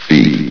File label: "feet", vox; source engine; hl1; video games